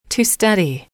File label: "to Study", english